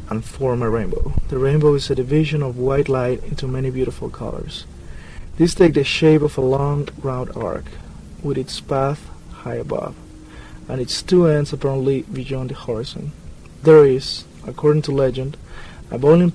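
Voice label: peru, dialects of English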